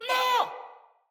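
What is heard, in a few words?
Attack Corrupted Sprouts, en; Battle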